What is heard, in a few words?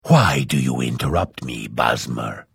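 Hello, Vo; Morrowind GOTY GOG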